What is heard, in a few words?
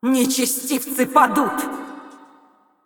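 Russian assist; Kayle; bloom minigame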